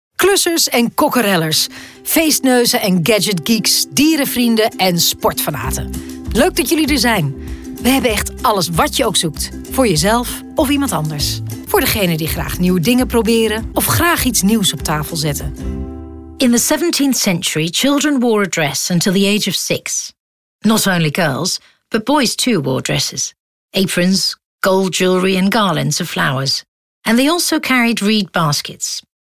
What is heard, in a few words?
NL UK